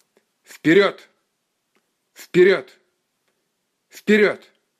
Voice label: "Vperyod", russian dog commands